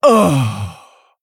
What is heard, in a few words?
Female Tauren Death